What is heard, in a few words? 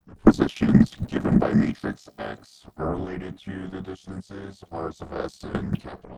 xsynth creak speech